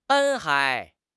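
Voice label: "斑", Chinese; HSK6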